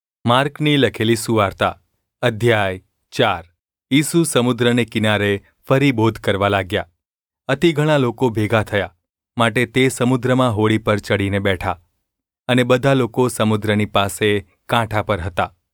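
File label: gu ulb mrk, Gujarati; mrk 4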